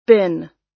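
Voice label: "bin", US English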